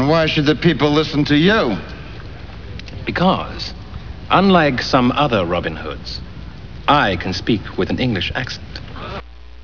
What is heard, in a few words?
accent